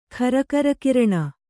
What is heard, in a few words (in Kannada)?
"ಖರಕರಕಿರಣ", Kannada